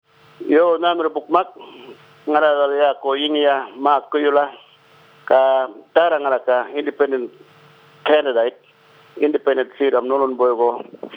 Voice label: Radio Interview Promo; Interview with Yingiya Mark Guyula by Yolngu Radio in Yolŋu Matha